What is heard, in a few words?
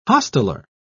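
"hosteller", British English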